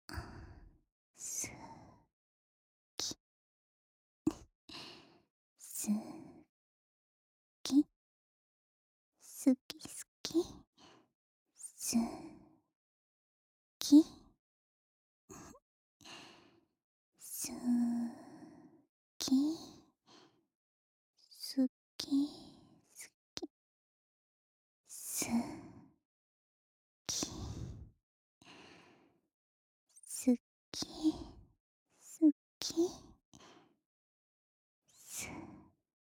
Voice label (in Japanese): 作業用すきすきささやき